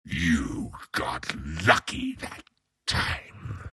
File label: troll death; troll warlord